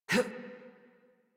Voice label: Adult Operator Jump, en; Warframe; New War